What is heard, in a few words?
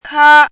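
"kā", Chinese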